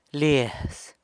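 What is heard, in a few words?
"liaz", house, San Lucas Quiaviní Zapotec; e-poss.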